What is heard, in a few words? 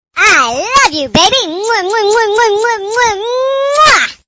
I Love You Baby Notification Ringtone